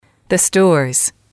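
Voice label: "the stores", English, female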